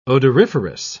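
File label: "odoriferous", English, British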